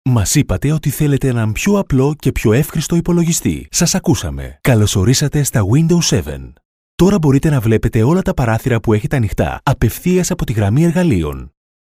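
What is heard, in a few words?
Greek narration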